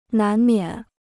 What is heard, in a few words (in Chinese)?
"难免", Chinese